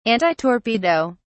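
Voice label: "antitorpedo", British English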